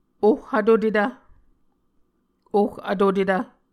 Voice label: "oh vtotetv", Muscogee